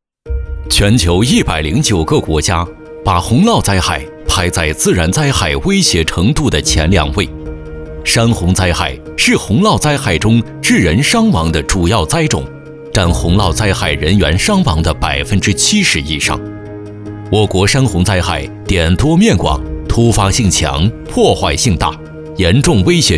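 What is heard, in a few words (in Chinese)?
男国大音-科技感; 国家气象平台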